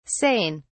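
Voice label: "sain", British English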